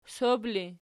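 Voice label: sob ergative, Archi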